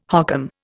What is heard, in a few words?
"hokum", Indian